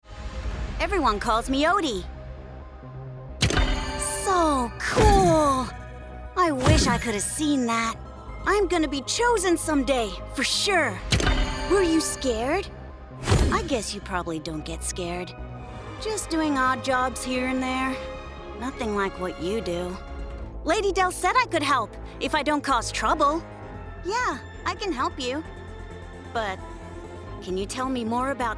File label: Child Boy Alien Brave cartoon US GenAm; Catalyst Balck; Otee; MOBA Videogame; accents characters age voice samples